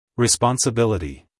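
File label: "responsibility", American English, male; everyone-can-use-english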